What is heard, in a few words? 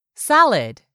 "SALAD", english; 3000 words